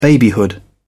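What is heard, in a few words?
"babyhood", British English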